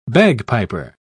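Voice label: "bagpiper", British English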